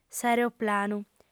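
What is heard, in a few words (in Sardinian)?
"s'aereoplanu", female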